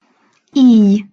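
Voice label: "ij", Hungarian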